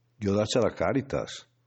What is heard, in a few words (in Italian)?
frasi in bergamasco